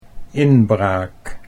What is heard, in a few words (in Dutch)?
"inbraak", Dutch